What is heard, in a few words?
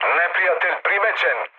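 Serbian enemy detected mood high; War Thunder; speech srb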